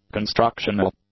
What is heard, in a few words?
"constructional", English; adjectives